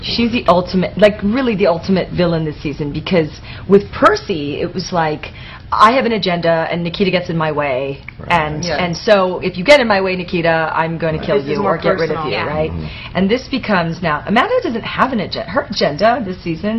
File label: interview; Maggie Q; Nikita